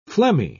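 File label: "phlegmy", British English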